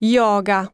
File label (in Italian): italian yoga; samsung YP-U5; Audio User Interface